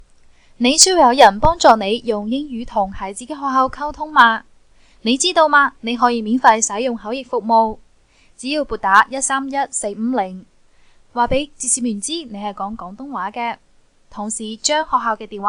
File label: cantonese; public schools; going to a public school